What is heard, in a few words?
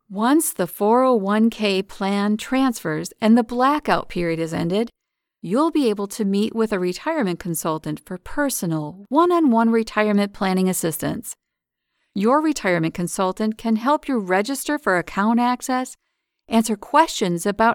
english us and canada female e learning